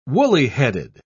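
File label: "woolly-headed", British English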